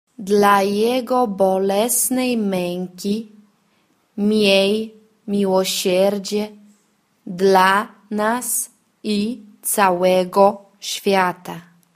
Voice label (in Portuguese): por sua dolorosa paixa lento; divina misericordia